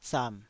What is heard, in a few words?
"sam3", taiwanese syllable